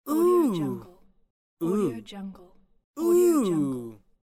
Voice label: teenage boy short disgusted ooh

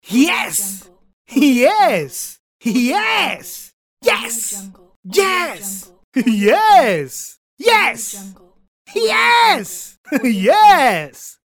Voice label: young male excited yes

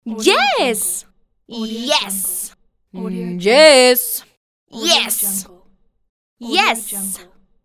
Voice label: female yes; medusamusiclab

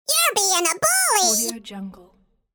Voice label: cartoon voice, "youre being a bully"

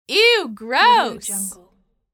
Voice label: female voice eww gross

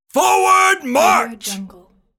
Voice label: military voice yelling forward march

